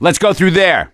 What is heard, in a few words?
misc directional, voice; gambler